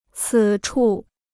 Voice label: "此处", Chinese

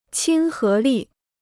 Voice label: "亲和力", Chinese

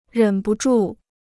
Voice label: "忍不住", Chinese

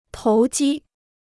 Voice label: "投机", Chinese

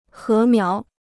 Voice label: "禾苗", Chinese

